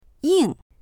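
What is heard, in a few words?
"ying4", Mandarin Chinese; 硬; 硬い、冷酷な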